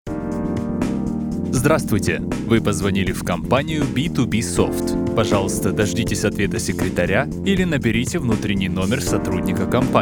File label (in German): telefon